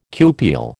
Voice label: "cupule", American